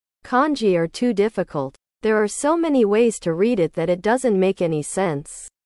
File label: english